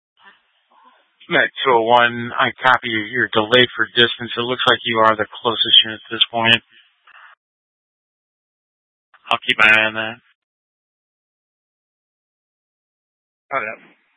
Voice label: Fire Departments Mesa Simulcast; TOPAZ